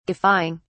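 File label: "guffawing", British English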